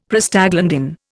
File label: "Prostaglandin", Indian; Dictionary